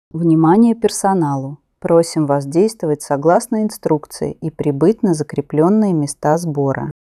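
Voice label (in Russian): оповещение сбор персонала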